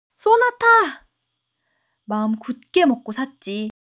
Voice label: excitement

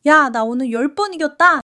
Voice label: excitement

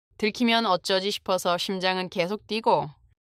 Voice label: fluster

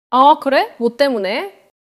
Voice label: neutral

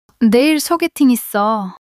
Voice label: fluster

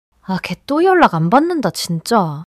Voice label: anger

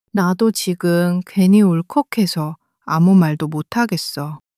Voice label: sadness

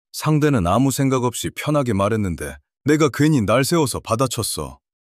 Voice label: anger; female

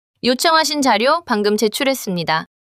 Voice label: neutral

